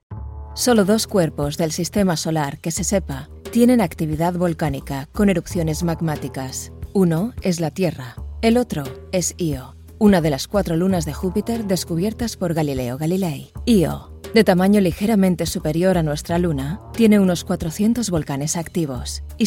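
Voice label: Spanish European General; GoLocalise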